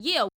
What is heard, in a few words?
"Yeah What's Up", Yamaha DJX 2B